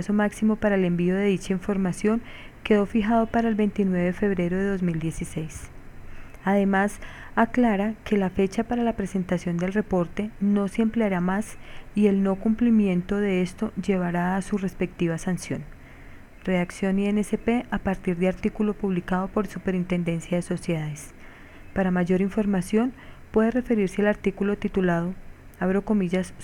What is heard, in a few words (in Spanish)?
comercial